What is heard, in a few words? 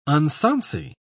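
"unsonsy", British English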